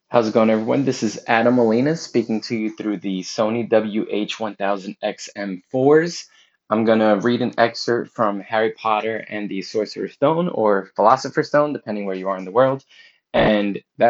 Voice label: mic

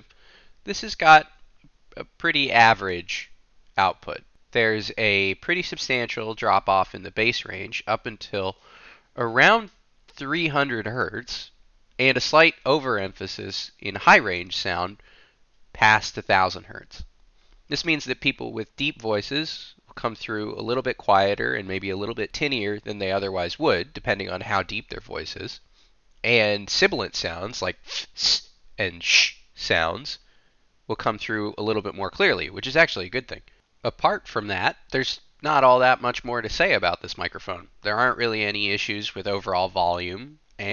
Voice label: Arctis 9 Mic sample

